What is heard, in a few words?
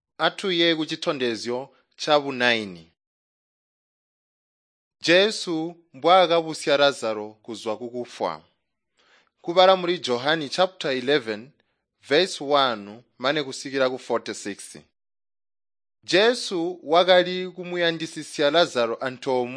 tonga plateau, drama; chitonga bsz nt drama